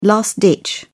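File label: "last ditch", British English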